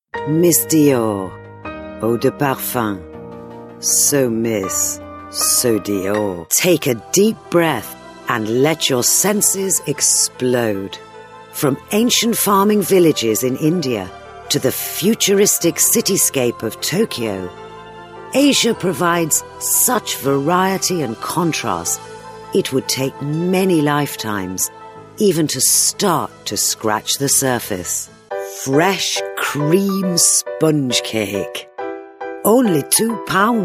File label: RP